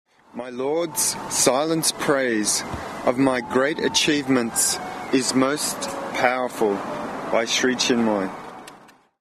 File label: poem